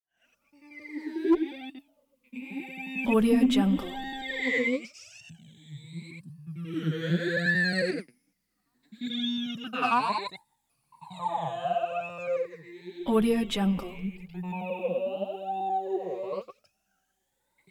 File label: creature vocal small voice child saying abcs